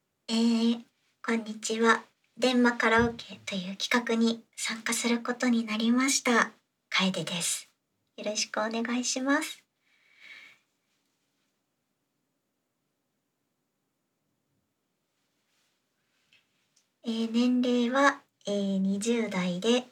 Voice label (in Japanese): 自己紹介, ASMR